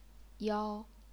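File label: "yao", chinese pinyin, first tone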